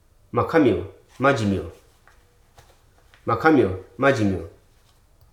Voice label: "improper", Hruso